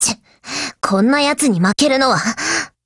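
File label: BREAK Japanese, Voice; GF Alarm; AA12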